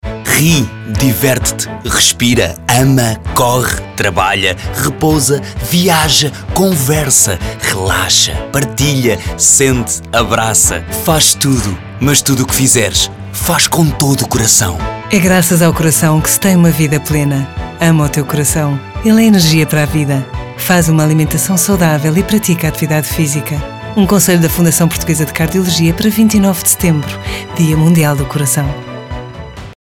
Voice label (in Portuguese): SPOT RADIO; DIA MUNDIAL DO CORAÇÃO 2016